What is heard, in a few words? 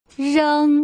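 "reng", Mandarin Chinese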